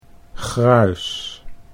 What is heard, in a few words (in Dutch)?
"gruis", Dutch